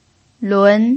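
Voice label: "lun", Mandarin Chinese pinyin, tone 2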